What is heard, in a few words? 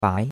"bai2", chinois; Cours de Chinois